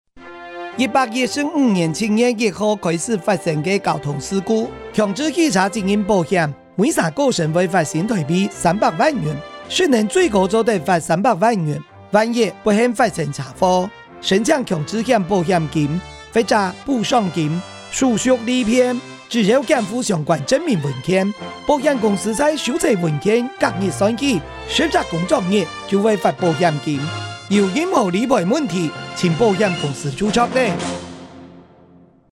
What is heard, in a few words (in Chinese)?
理賠篇(客語)